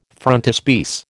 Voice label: "frontispiece", American